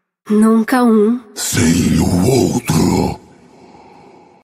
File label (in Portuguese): falas em portugues br; kindred